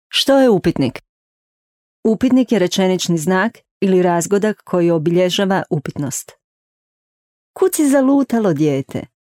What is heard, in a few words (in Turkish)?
Hirvatca Kadin; seslendirme